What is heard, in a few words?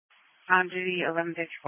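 Police Patrol Dispatch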